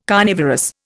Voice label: "carnivorous", Indian